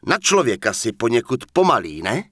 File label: Human Male Standard Greetings; NPC; wowpreklad; czwow